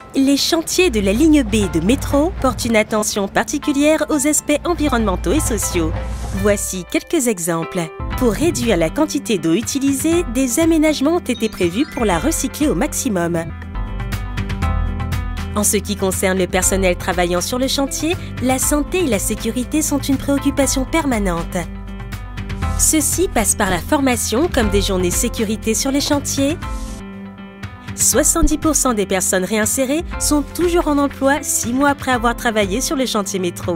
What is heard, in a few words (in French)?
Informatif explic; SEMTCAR; Les grands objectifs environnementaux du chantier métro ligne b; fier